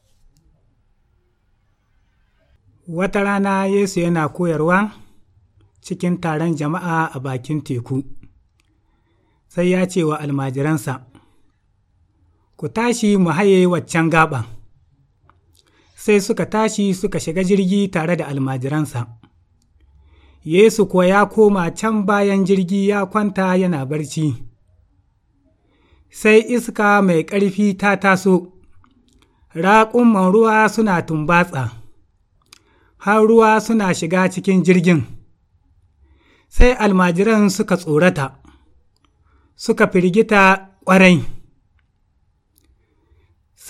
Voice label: Hausa Niger; Jesus Calme la Tempete; Jesus Calms the Storm